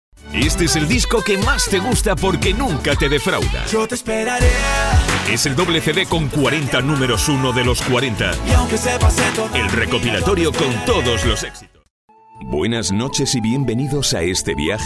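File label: Spanish Spain, male, voice over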